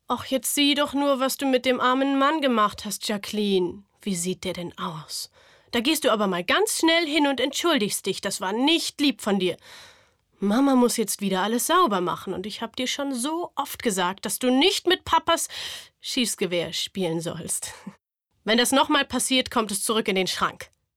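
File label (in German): szene, sprecher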